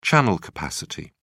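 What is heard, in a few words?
"channel capacity", British English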